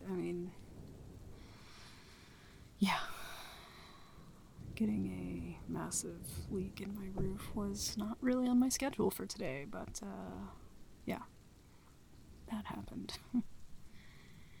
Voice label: asmr f4m clingy best friend cuddles you to sleep in your bed sleep aid comfort; rain